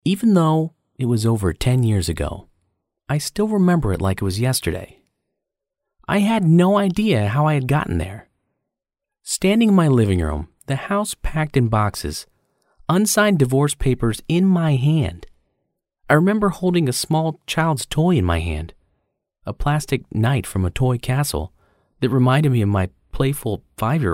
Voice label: audiobook